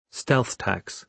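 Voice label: "stealth tax", British English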